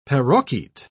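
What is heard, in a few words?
"parroquet", British English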